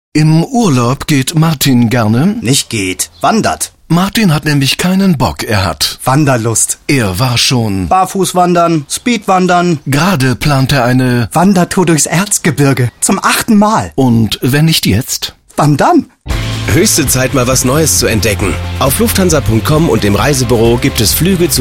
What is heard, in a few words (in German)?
Radiospot; Eurowings